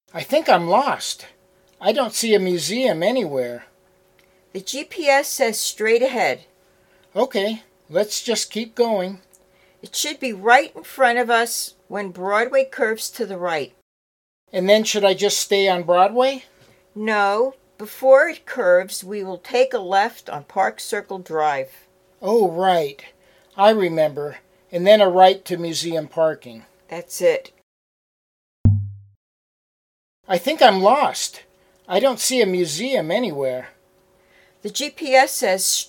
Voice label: Natural Dialog; Lesson 3